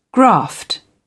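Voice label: "graft", English, British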